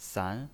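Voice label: "san", Mandarin Chinese pinyin voice, second tone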